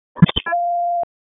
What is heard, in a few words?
Police Northeast District Disp, p25; Raleigh; wake p25